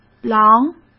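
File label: "狼", Mandarin Chinese; hsk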